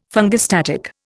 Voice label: "fungistatic", Indian

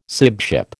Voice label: "sibship", American

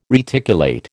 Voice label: "reticulate", American

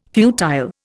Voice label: "futile", Indian

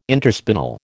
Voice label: "interspinal", American

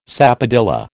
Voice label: "sapodilla", American

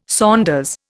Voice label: "saunders", Indian

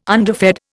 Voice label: "underfed", Indian

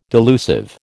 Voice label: "delusive", American

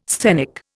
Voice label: "sthenic", Indian

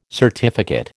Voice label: "certificate", American

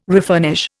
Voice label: "refurnish", Indian

